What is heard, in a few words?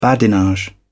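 "badinage", British English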